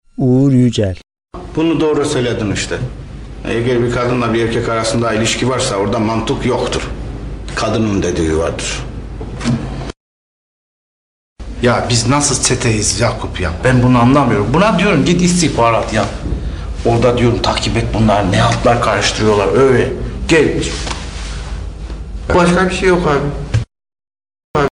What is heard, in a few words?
diyalekt, turkish dialect voices